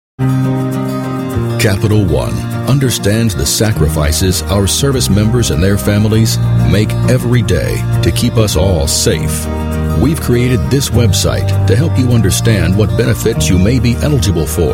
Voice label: english american voice over male